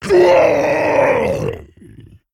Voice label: Male Dragon Death

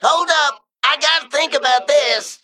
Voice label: Male Mech Emote Thinking

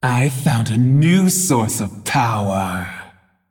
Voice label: Female Dreadlord Play

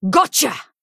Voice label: Female Human Attack

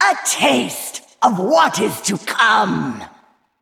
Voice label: Female Val'kyr TRIGGER WARPATH

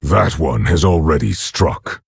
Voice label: Orc Emote ERROR MINION ATTACKED

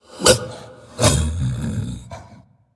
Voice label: Undead Beast; Arfus

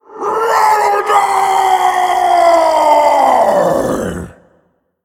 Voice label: Male Undead

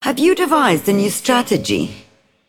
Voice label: Female Broker New Game

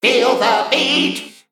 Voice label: Male Mech Play

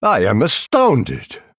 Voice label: Male Night Elf Wow

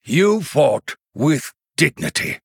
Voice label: Male Human Well Played

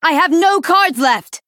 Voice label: Female Dryad; No Cards

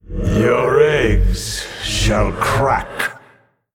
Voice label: Male Old God Emote HAPPY NOBLEGARDEN

